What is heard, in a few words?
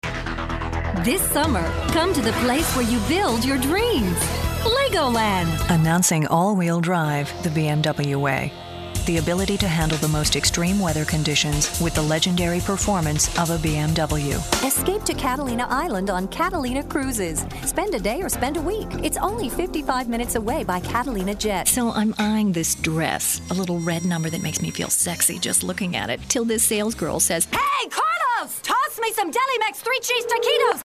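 commercial, female voice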